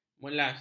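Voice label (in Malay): "melas", Malay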